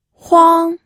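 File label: "huang", Mandarin Chinese, first tone; pinyin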